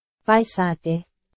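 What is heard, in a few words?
Burmese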